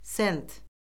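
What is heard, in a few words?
"sent", Azerbaijani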